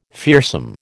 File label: "fearsome", American